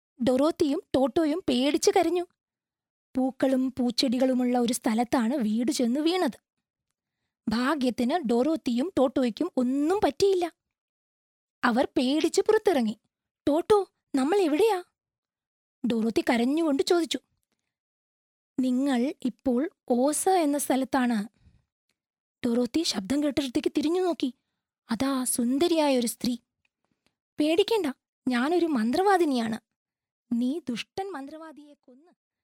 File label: female malayalam voice over upbeat